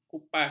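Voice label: "kupas", Malay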